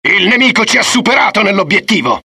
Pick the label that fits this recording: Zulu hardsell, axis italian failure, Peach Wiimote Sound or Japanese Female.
axis italian failure